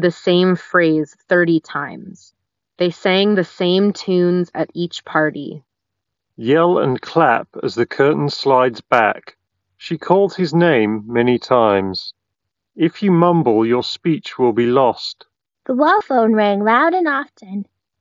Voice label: Samsung Galaxy Buds Pro Ideal microphone sample